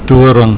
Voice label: "toring", Afrikaans

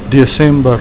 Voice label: "desember", Afrikaans

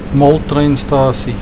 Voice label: "moltreinstasie", Afrikaans

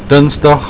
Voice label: "dinsdag", Afrikaans

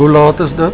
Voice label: "hoe laat is dit", Afrikaans

